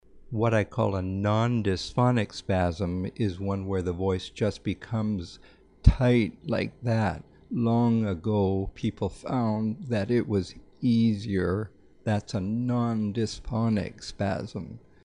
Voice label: Tonic non disphonic variant